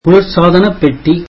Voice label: tamil refrigerator